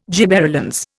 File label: "gibberellins", Indian